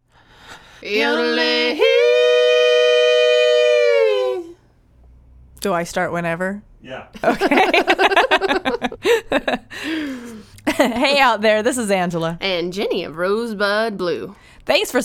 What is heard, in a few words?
Radio Spot